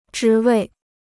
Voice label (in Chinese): "职位", Chinese